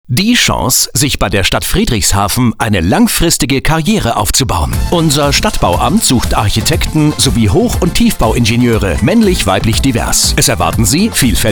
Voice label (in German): Funkspot Recruiting; SEEFUNK; Stadt Friedrichshafen